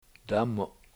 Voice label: "damu7", Washo; skirt

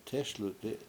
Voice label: "tESluti", Washo

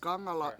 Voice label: her house, Washo; gAngala

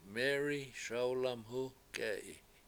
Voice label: "SAwlamhu k7E7i", washo

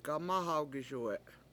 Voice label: go over and fight, Washo; gamAhawgiSuwe7

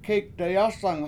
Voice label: kEEk dayAsang Hot Cake, Washo

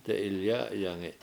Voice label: "de7ilyA7yangi", Washo; naked

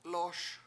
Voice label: lOOS to dance, Washo

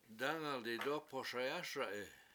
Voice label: "dAngal didOpoShayASa7i" I will burn house, Washo

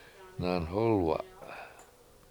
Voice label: "nanhOlwa", Washo; Golden Currant

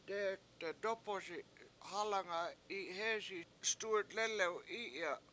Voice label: blackened rock around stewart, Washo

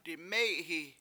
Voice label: "diMEy7hi7" I choose, Washo

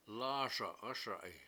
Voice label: lAASa7 ASa7i I will urinate, Washo

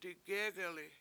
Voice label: "digEEg1li7" I sit around, Washo

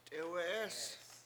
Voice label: "t7Ewe7EEs", Washo; not far

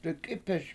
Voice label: "dek7IpeS", Washo; Black Person

